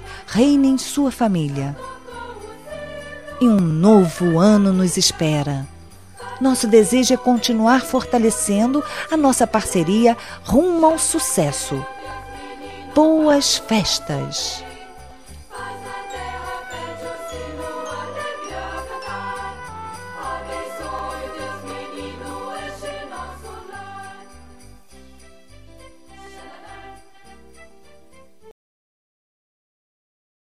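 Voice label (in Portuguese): Feliz Natal Com Agradecimento a Cliente, female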